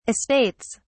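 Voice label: "estates", British English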